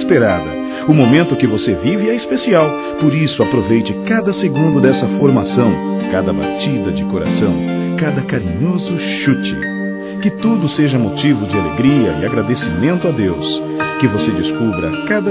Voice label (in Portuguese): Parabéns Pela Gravidez Masculino; Telemensagens; Felicitações; Telemensagens 2017